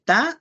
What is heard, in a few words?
"ta", Náhuatl; tú; you